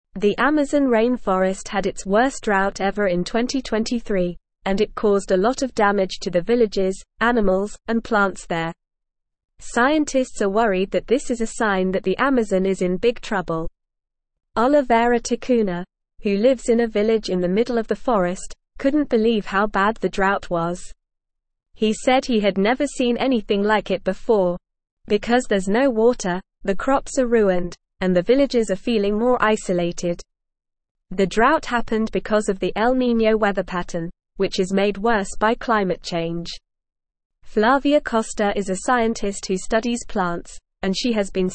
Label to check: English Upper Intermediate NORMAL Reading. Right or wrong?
Right